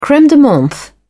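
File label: "creme de menthe", British English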